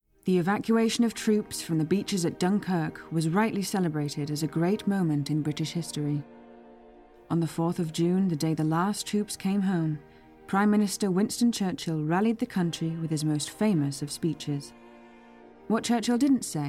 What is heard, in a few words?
Narration